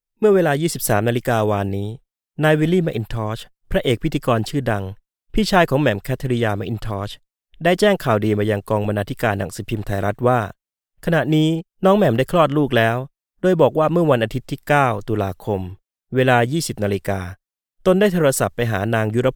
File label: Thai; foreign languages